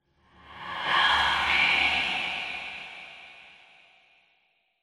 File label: Whispers Tense; Silent Hill Downpour